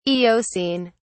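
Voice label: "eocene", English, British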